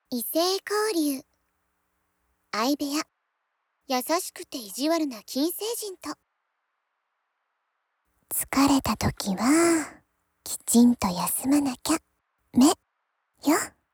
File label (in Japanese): タイトルコール, ASMR